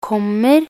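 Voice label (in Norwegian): "kommer", Norwegian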